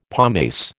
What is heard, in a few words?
"pomace", American